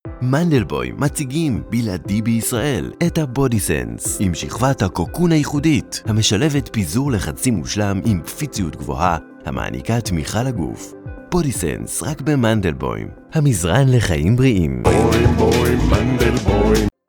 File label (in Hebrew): Hebrew commercial; Israel; the pleasant voice that you all know from the radio